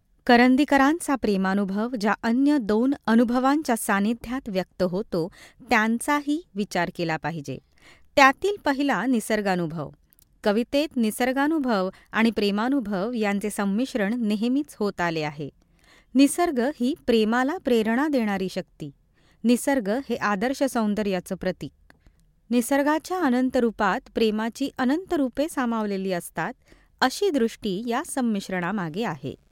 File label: elearn marathi